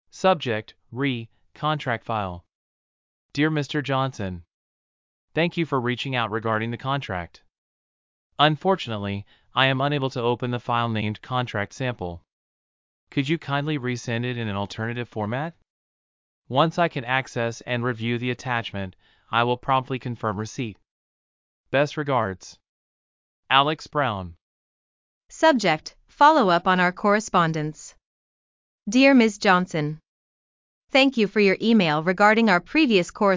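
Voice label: Email, Online Business English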